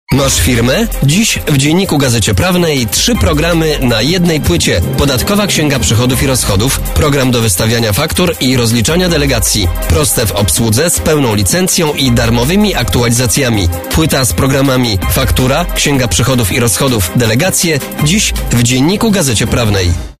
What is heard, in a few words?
commercial